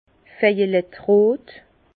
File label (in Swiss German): "vejelettrot", Bas Rhin; vin; rose violet